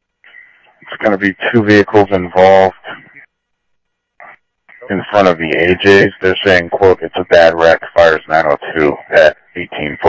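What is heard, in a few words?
police department, scanner audio; SCTSDLE PD - PD2; ScottsdalePolice; ThompsonPeakHigh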